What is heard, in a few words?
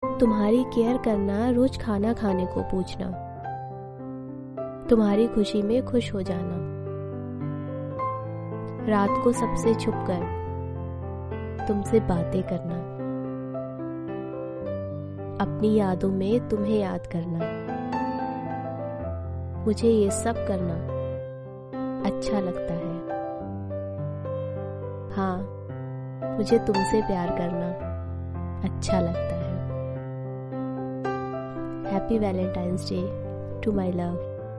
hindi, romantic, shayari; propose day